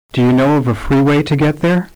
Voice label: Do you know of a freeway to get there Compound, Stress